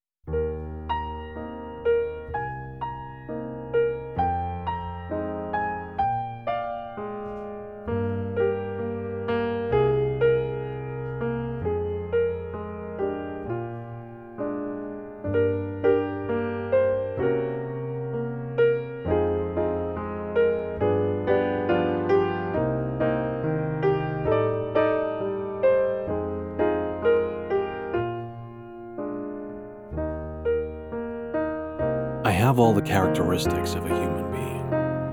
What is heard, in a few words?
Monologue; American Psycho (soundtrack)